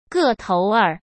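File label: "个头儿", Mandarin Chinese; chinese-flashcards; hsk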